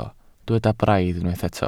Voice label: "braidd", Welsh